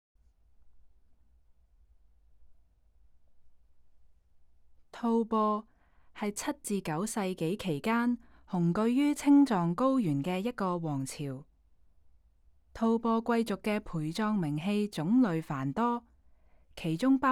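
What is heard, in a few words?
Cantonese, audio guide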